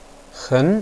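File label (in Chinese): "hen", Chinese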